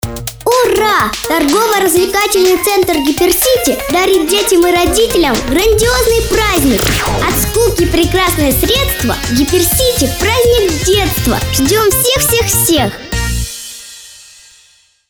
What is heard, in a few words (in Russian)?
девочка 8 лет